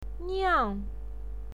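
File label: "niang", Mandarin Chinese, fourth tone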